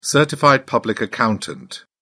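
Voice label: "certified public accountant", British English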